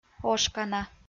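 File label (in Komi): "ошкана", Komi, female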